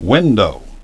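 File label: "window", English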